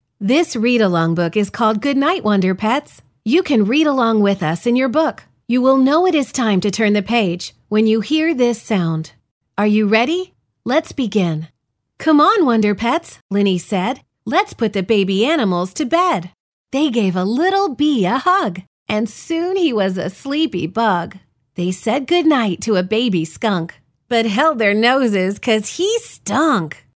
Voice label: Narration; Goodnight Wonder Pets